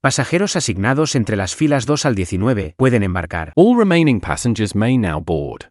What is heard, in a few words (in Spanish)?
Embarque, Announcements; CanaryFly